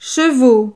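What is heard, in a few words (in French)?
"chevaux", French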